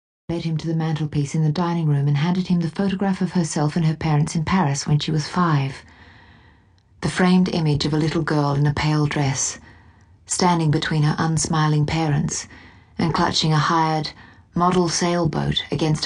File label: audiobook; Conditions of Faith